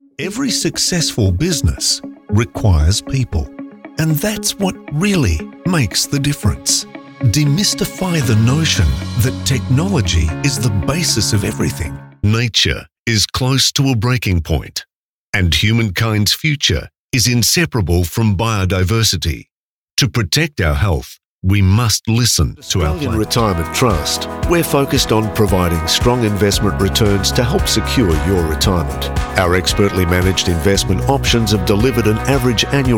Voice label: Australian Male